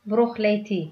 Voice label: good night, tigrinya